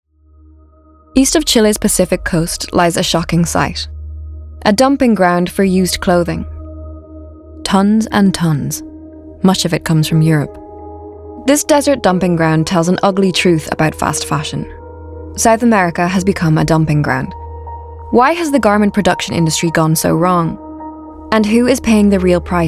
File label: Narration